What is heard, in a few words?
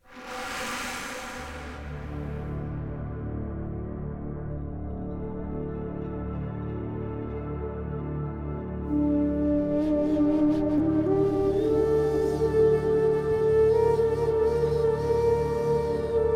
Female Slave Voice Intro